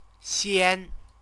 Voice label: "xian", Chinese

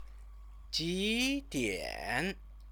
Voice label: "jidian", Chinese

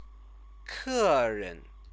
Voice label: "keren", Chinese; Chinese lessons

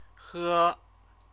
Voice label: "he", Chinese

